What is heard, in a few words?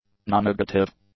"nonnegative", english; adjectives